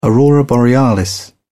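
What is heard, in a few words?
"aurora borealis", British English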